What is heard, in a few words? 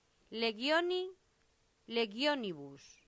"legione legionibus", latin; santillana